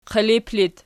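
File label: "qilip" locative, Archi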